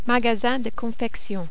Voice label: "magasin de confection", French